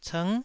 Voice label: "cng9", taiwanese

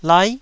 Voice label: "lai9", Taiwanese syllable

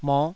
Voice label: "moh5", taiwanese

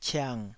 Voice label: "ciang3", taiwanese

